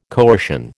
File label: "coercion", American